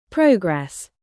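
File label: "present", noun, British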